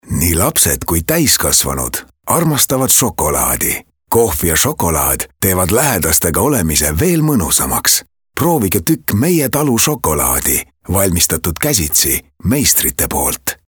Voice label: EST male, Sweet